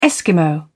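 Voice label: "eskimo", British English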